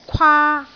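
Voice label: "kwaa1", Cantonese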